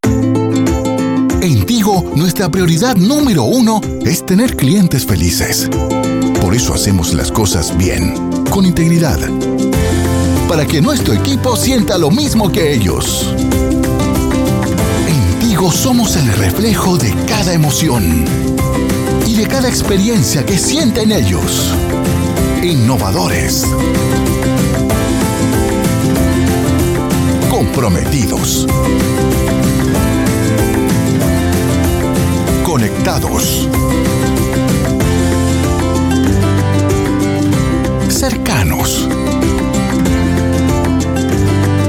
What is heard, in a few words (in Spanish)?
Locutor; Tigo Colombia